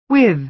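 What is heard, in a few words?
"with", American English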